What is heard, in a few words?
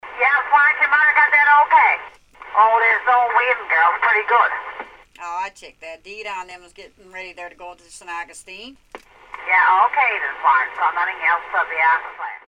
walkie talkie